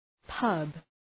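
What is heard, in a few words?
"pub", English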